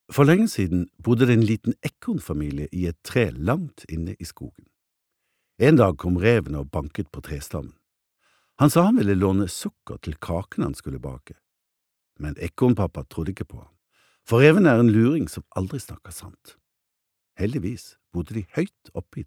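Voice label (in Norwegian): Norwegian barnebok, mann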